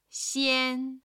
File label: "xian1", chinese